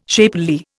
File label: "shapely", Indian